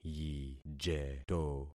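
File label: "yijeto", concatenated syllables; Affixing experiment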